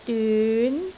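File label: "dyun2", Cantonese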